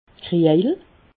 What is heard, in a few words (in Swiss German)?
"kriejel", alsace